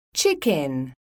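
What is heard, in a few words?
"CHICKEN", English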